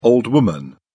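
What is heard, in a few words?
"old woman", British English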